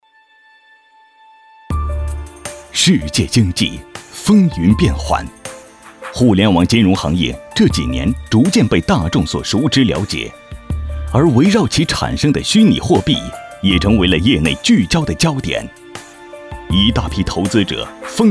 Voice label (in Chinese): 专题区块链类科技感